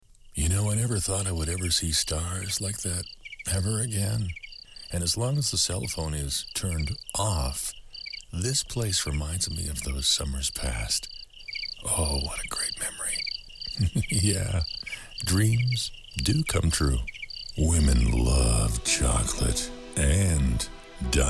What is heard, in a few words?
warm, male voice radio commercial samples